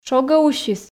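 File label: шогӧ усис, Komi, female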